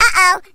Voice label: "uh oh", cartoon; ooh ahh; uh um